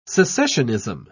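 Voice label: "secessionism", British English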